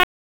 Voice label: Raleigh Police Northeast District Tac, p25; wake